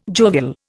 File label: "jovial", Indian